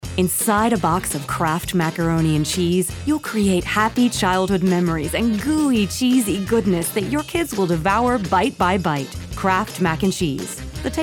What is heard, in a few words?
commercial